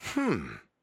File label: Emote Positive